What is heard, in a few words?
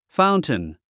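"fountain", English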